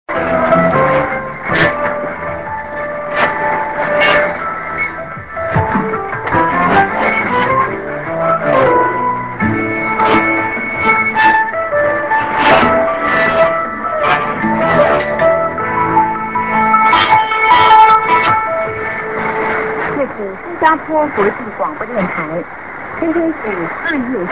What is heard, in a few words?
St. music->ID+SKJ(women)->music->ID(man), Int. Mandarin